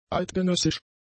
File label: "eidgenössisch", german; adjectives